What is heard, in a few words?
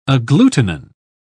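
"agglutinin", British English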